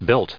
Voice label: "built", en us